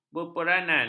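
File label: "berperanan", Malay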